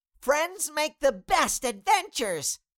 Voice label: Cartoon little child voice friends make the best adventures